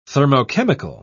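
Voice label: "thermochemical", British English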